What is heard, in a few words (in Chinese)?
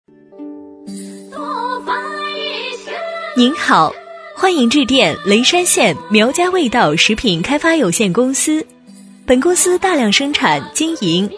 女声 彩铃 苗家味道